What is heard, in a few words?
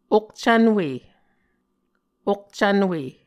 "okcvnwe", Muscogee